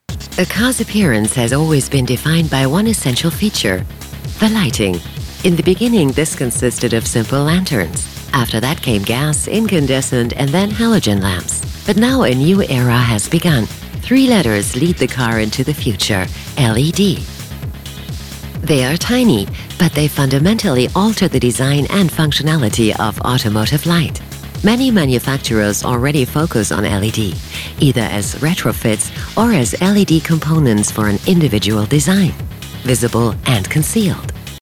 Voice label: Imagefilm englisch

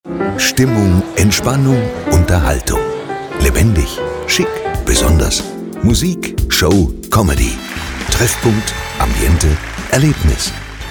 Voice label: Radiowerbung; Kurhaus

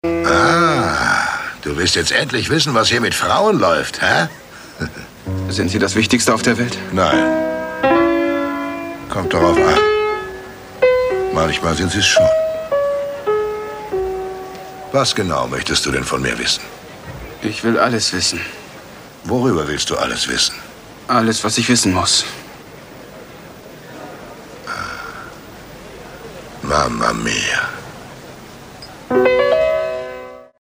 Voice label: Synchron; Jean Reno

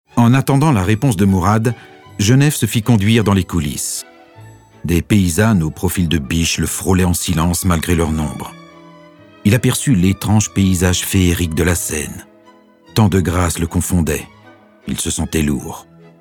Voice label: Lesung Krimi

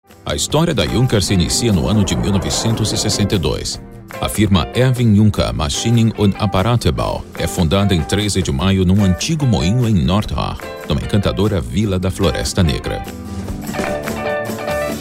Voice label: Industriefilm; Junker

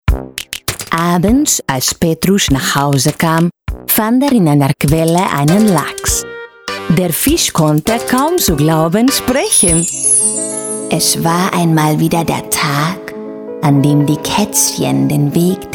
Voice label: Hörbuch

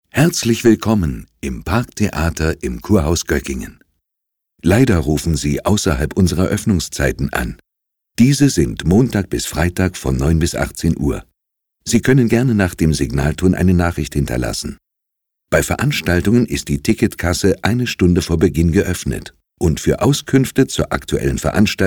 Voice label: Telefonansage

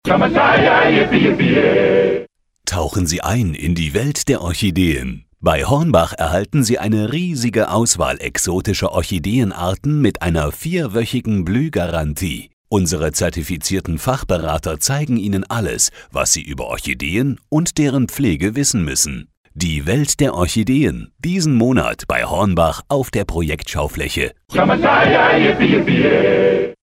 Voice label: Ladenfunk; Hornbach Orchideen

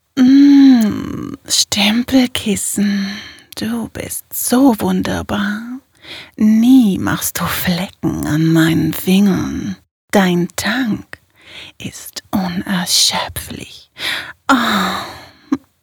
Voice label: erotisch

